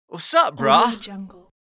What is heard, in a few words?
surfer whats up bruh